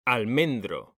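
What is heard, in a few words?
"almendro", Spanish, male; mekanta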